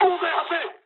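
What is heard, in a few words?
aircraft damaged mood high, speech japanese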